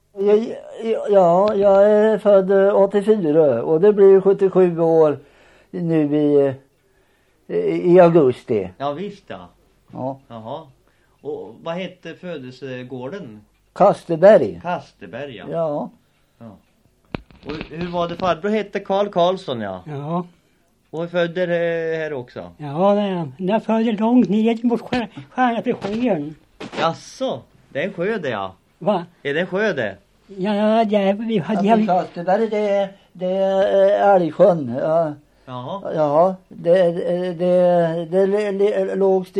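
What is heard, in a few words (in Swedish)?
Hällestad Östergötland, Svenska sagor, dialekter; Folkminnen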